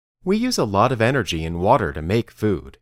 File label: Dictation